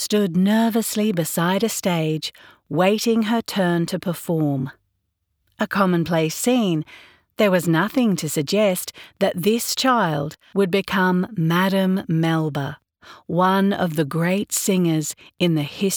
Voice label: Australian Reel Narration

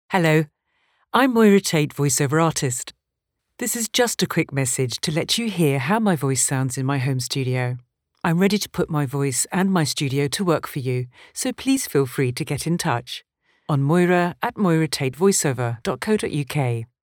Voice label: studio

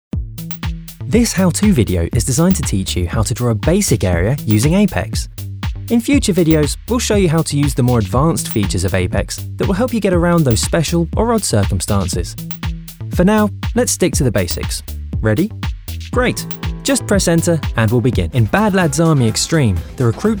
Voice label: Narrative Reel

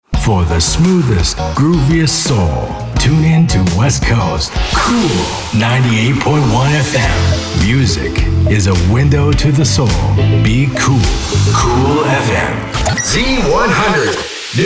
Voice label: Radio Imaging Showreel

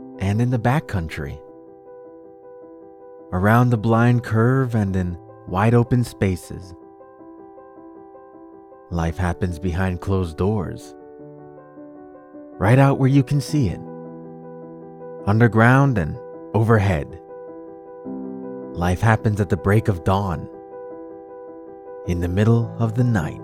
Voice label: Narration

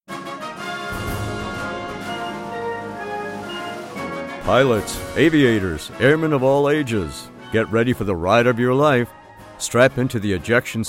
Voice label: Announcement

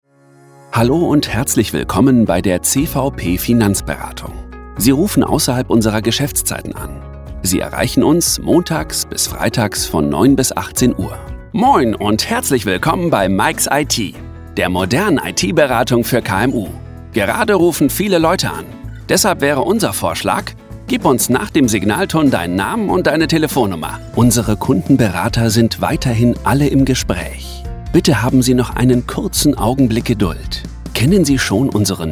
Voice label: telephone system mix

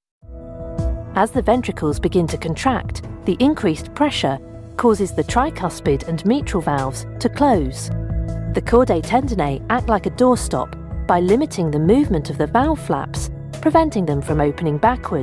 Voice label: Medical Narration

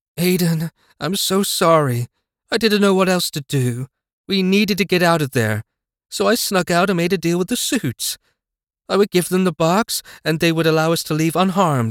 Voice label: Dialogue Narration

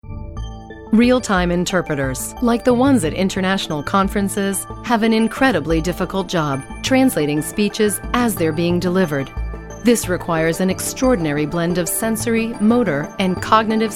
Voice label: ENG VO Narration

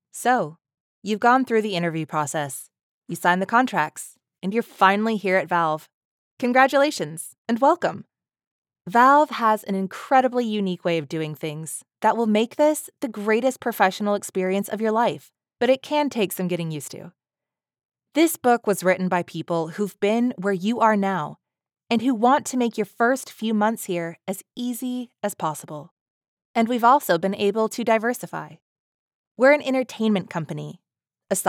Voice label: ELearning

